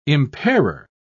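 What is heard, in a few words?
"impairer", British English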